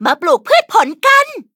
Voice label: Cookie level up, th; cookie run